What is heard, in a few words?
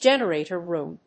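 "generator room", English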